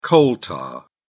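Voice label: "coal tar", English, British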